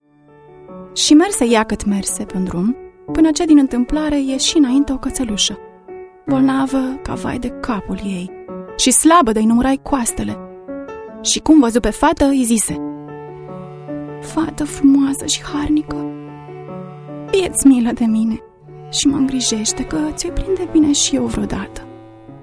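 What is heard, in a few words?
Romanian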